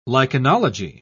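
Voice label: "lichenology", British English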